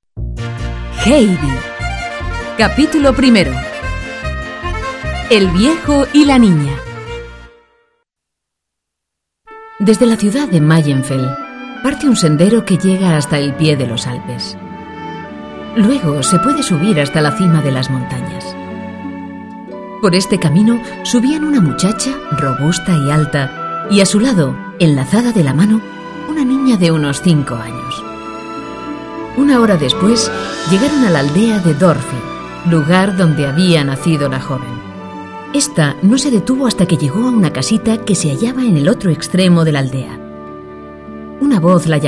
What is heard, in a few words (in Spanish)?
Cuento infantil Narración; Heidi